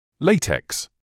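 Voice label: "latex", British English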